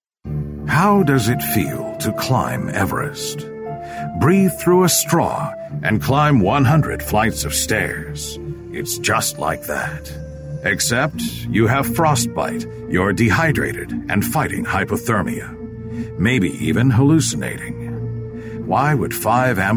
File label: TV promo; Everest